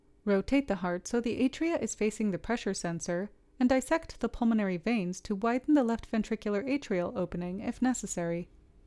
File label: Voiceover